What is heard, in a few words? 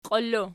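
"qiili" plural, Archi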